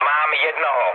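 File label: player killed enemy, Czech, mood low; War Thunder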